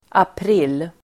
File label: "april", Swedish